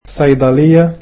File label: "pharmacy", egyptian